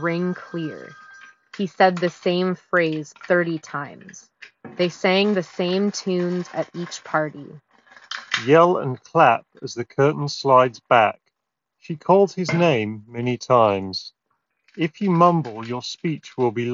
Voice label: Office microphone sample; Jabra Elite 8 Active